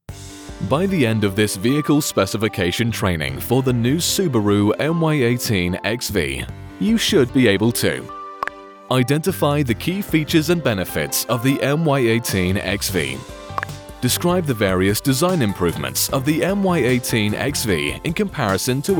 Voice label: Elearning